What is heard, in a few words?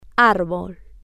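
"arbol" Spanish, female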